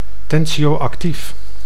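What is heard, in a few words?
"tensio-actief", Dutch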